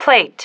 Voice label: "plate", female